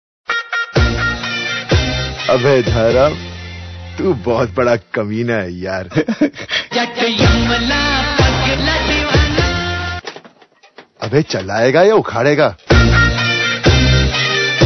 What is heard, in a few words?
Dialog; Yamla Kami Na